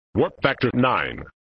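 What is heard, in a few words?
"Warp Factor Nine", male voice; Worf; flipper; sttng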